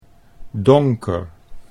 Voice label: "donker", Dutch

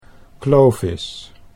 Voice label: "Clovis", Dutch

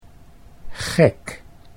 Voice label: "gek", Dutch

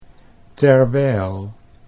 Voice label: "terwijl", Dutch

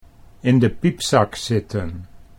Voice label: "in de piepzak zitten", Dutch

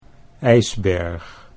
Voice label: "ijsberg", Dutch

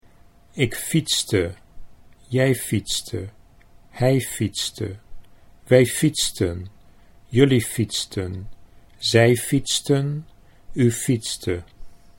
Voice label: ik fietste jij fietste, Dutch; ik fietste / jij fietste / hij fietste / wij fietsten / jullie fietsten / zij fietsten / U fietste; (I rode a bike) / (you rode a bike) / (he rode a bike) / (we rode bikes) / (you rode bikes) / (they rode bikes) / (you rode a bike)

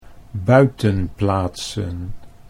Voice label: "buitenplaatsen", Dutch

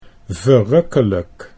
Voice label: "verrukkelijk", Dutch

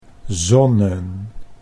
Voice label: "zonnen", Dutch

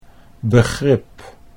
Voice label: "begrip", Dutch

